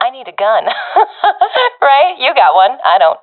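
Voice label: request weapon, professional female; vo; Counter Strike Model Pack; CS:GO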